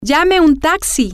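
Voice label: call a taxi, spanish, female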